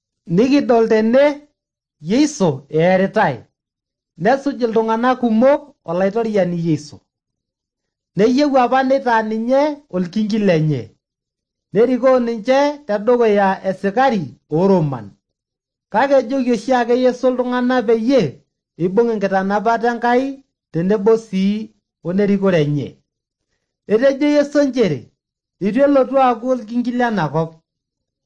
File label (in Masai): maasai bsv nt drama; good news; bible